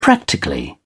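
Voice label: "practically", English, British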